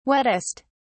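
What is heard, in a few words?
"wettest", British English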